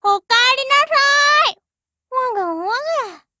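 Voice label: HELLO Japanese, Voice; GF Alarm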